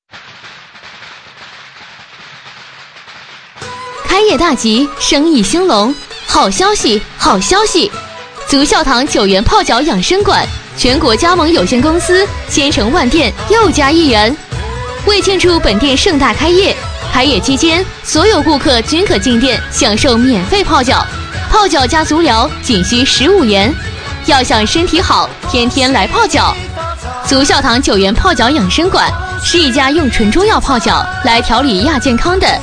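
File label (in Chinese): 女开业 泡脚养生馆; 足笑堂九元泡脚养生馆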